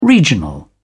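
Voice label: "regional", British English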